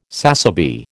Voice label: "sassaby", American